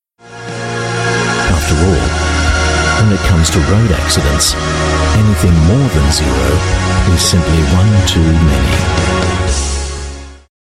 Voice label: Australian Mid Atlantic English, English Australian Male; Road Safety for Children